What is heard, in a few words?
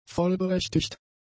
"vollberechtigt", German; adjectives